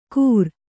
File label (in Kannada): "ಕೂರ್", Kannada